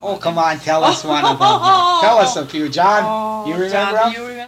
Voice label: Personal history with 70 year old male and 77 year old female Utica Minnesota, american english dialect